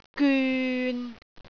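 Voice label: "gyun6", Cantonese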